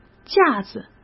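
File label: "架子", Mandarin Chinese; hsk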